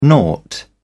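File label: "nought", British English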